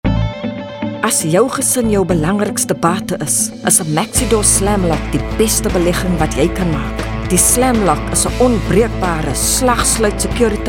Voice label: Afrikaans Hard Sell